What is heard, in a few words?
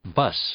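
"bus", English; noun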